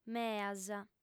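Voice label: "meas", female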